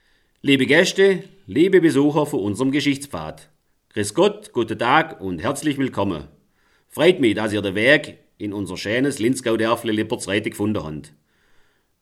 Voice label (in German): Begruessung Dialekt